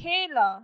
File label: "shoot", np